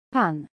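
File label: "pan" Polish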